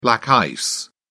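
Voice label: "black ice", British English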